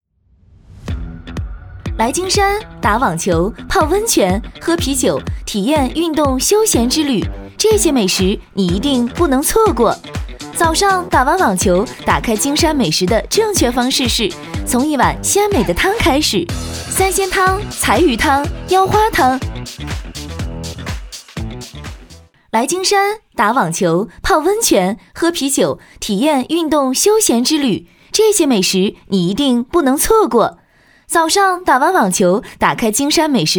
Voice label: 女 活力 美食; 京山美食

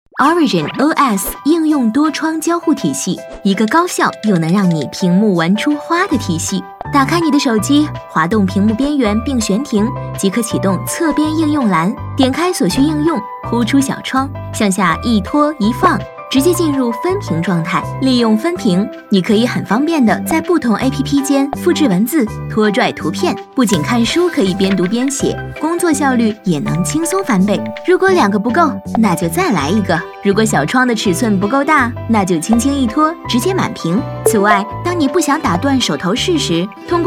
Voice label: 女 轻松优雅; 飞碟说; OriginOS-Ocean多窗口交互体系

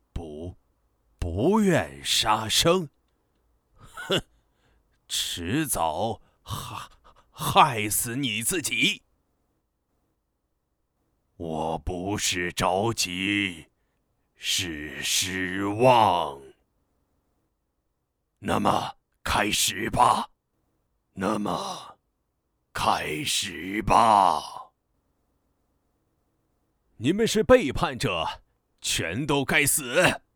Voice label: 男 角色 动画四角色; 申公豹 龙王 沙泽 鲨妖王

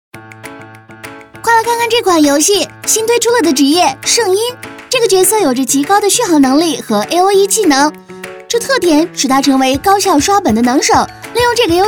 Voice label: 女 游戏圣音; 飞碟

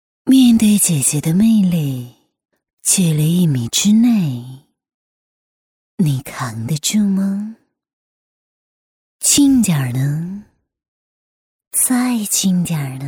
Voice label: 女 角色 御姐诱惑

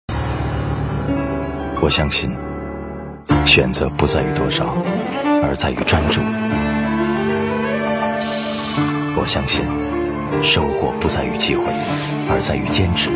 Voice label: 男, 国语男声配音; 劲霸男装全新品牌形象

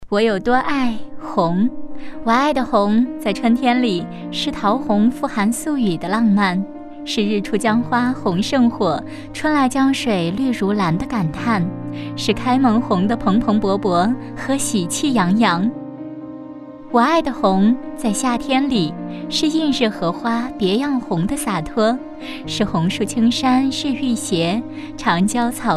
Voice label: 女 旁白 春天里

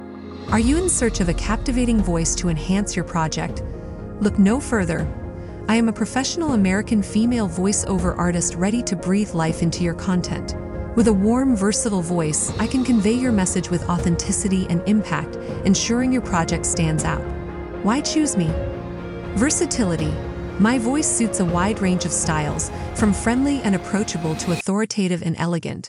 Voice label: 美式 外籍女

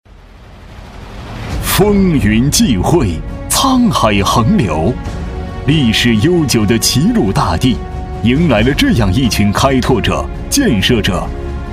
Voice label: 男 激昂高亢 汇报; 中建八局汇报

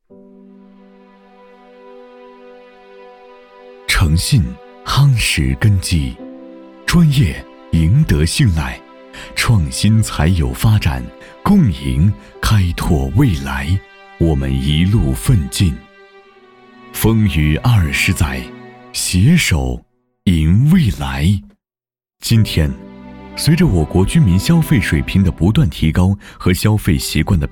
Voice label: 男, 宣传; 诚信夯实根基

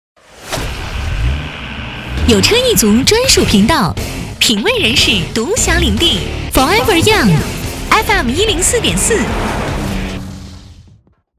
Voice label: 女 电台

